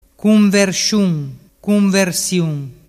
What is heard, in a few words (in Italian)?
"cunversciun cunversiùn", Vernazzese; Vocabolario Vernazzese - Italiano